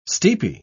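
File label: "steepy", British English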